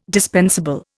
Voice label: "dispensable", Indian